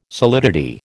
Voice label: "solidity", American